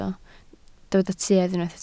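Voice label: "tuedd" Welsh, spoken word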